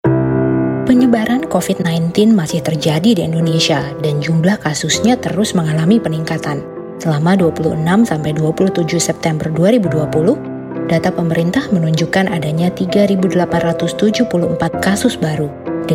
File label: INDONESIA FORMAL